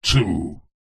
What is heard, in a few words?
radio production voice male processed deep says 1